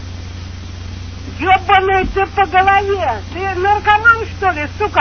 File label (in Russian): prikoly babka ats